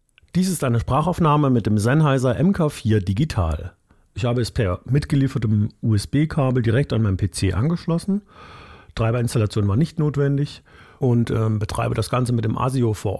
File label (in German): Sprache bearbeitet; sennheiser mk4 digital powered by apogee